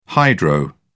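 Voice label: "hydro", British English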